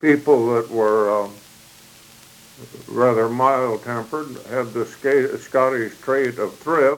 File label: Oral history with 73 year old male Meeker County Minnesota, american english dialect